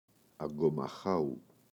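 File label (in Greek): "Αγκομαχάου", Greek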